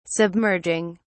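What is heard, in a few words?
"submerging", British English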